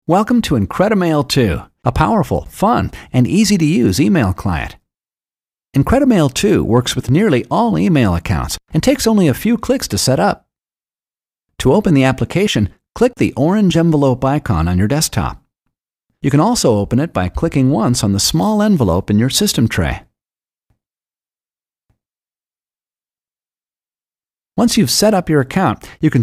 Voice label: Video Tutorial; Jugendfrei; NATIVE PROFISPRECHER